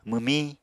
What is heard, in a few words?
"mwmiñ", Apatani; [mɨmĩ] v. win